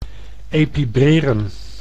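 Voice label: "epibreren", Dutch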